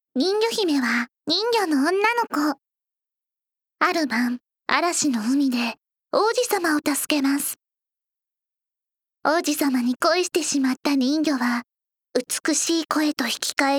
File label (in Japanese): ナレーション